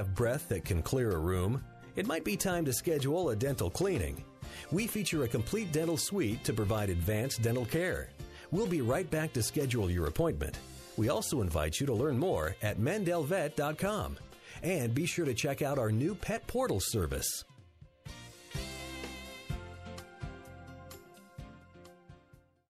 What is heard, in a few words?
veterinary and animal hospital on hold message